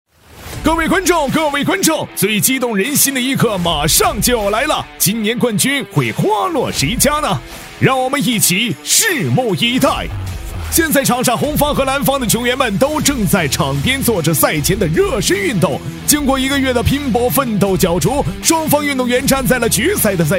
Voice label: 男W3-球赛比赛播报-激烈球赛解说, 喊麦激情 激情力度

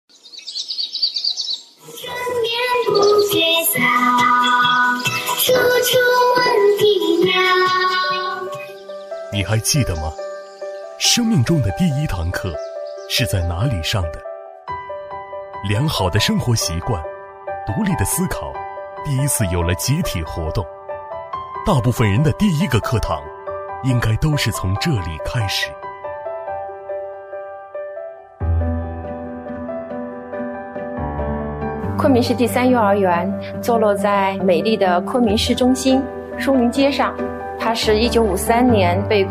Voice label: 男; 男D7-激情大气; 昆明市第三幼儿园

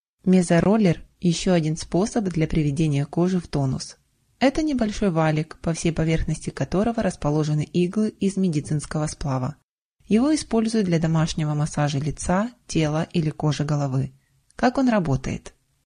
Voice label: 俄语女声

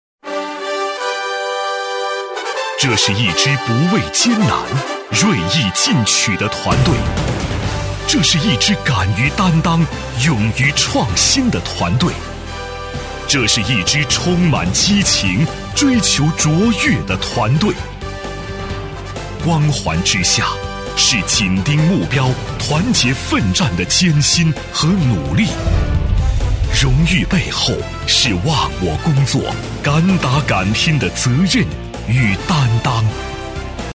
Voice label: 男 大气 庄严 颁奖类 先进单位; 高端磁性; 大气浑厚

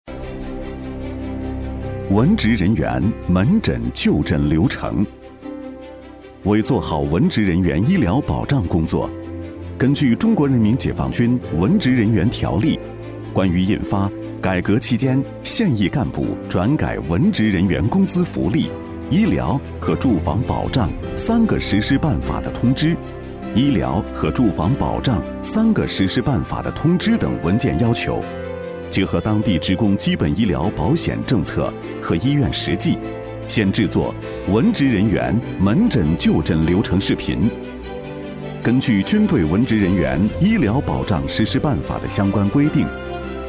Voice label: 男 学习视频 大气磁性; 文职人员门诊就诊流程

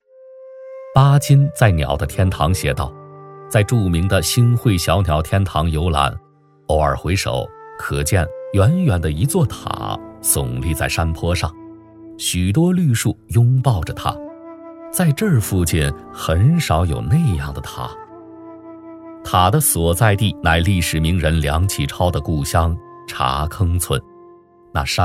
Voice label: 男 纪录片 舒缓讲述; 大气浑厚

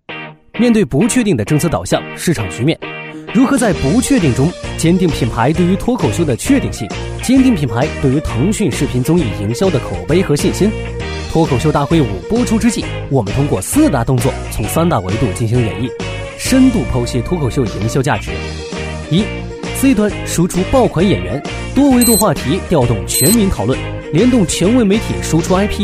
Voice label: 男 MG动画 脱口秀

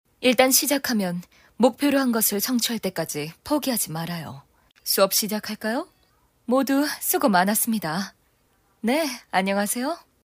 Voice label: 韩语女声

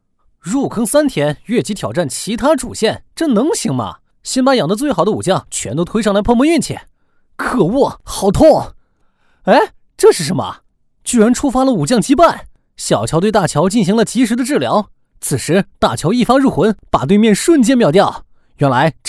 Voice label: 男 抖音短视频 情绪饱满; 三国志; 轻松活泼

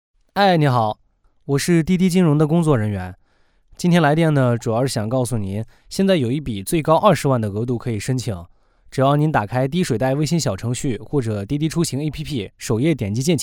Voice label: 男 电话群呼 打电话 很素人自然

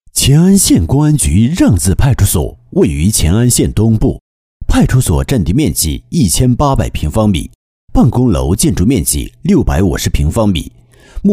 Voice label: 男 汇报专题 派出所先进事迹; 《让字派出所先进事迹》; 男2-浑厚大气 稳重大气

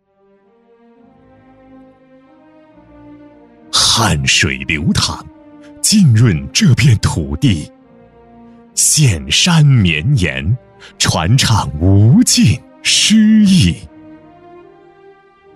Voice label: 男 宣传片 大气抒情; 三顾襄阳; 高端大气 大气浑厚; 宣传片《三顾襄阳》-大气抒情

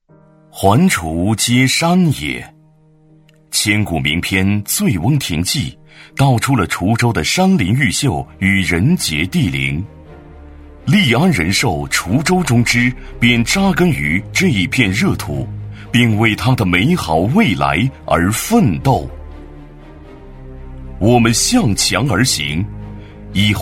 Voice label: 男 企业宣传片; 男B12-高端大气 大气浑厚; 安徽利安滁州中支

